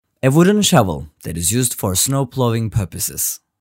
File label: english